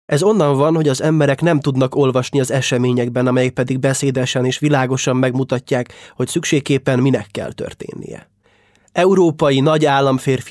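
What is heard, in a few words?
Hungarian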